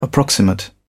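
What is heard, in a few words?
"approximate", British English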